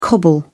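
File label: "cobble", British English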